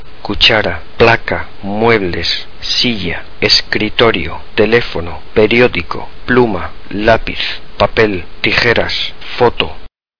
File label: Spanish objects